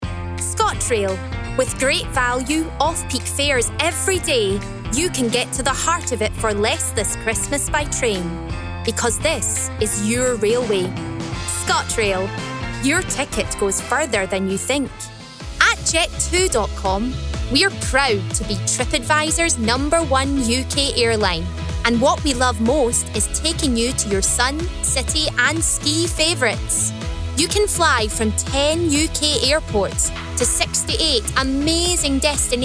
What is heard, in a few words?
Commercial Reel